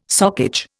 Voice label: "socage", Indian